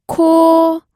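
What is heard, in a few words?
"kuo", Mandarin Chinese, first tone; pinyin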